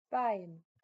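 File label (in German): "beim", German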